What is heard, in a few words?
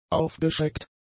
"aufgeschreckt", German; adjectives